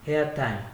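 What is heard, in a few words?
"airtime", Nigerian Pidgin, male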